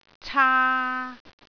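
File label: "caa3", Cantonese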